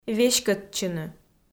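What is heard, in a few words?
"веськӧдчыны", Komi, female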